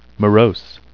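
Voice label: "morose", English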